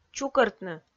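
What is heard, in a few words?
"чукӧртны", Komi, female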